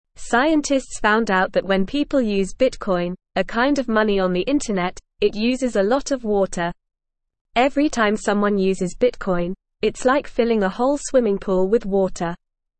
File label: English Lower Intermediate NORMAL Reading; Bitcoin Uses a Lot of Water Which is Bad